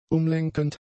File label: "umlenkend", German; adjectives